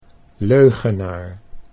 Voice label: "leugenaar", Dutch